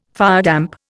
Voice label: "firedamp", Indian